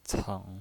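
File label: "ceng", Mandarin Chinese, third tone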